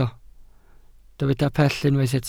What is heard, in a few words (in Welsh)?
"pell", Welsh